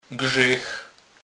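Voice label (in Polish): "grzech", Kurpie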